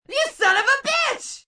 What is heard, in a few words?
You Son Of A Bitch, Attitude, Female